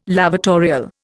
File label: "lavatorial", Indian